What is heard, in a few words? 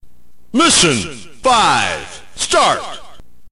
narrator